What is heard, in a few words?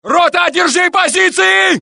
Russian; mohaa